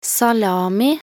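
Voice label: "salami", Norwegian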